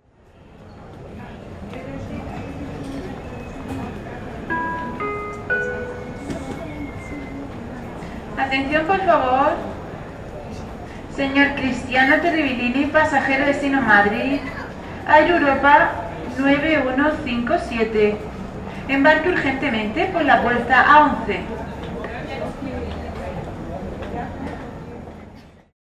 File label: attention por favour, Flughafen Ansagen